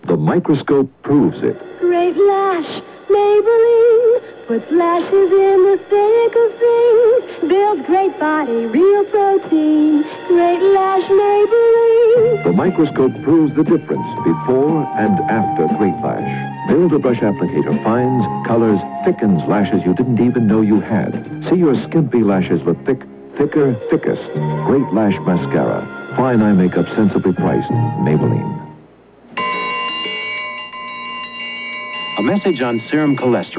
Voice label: tv commercial break; 1976; wmal